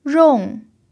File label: "rong4", chinese pinyin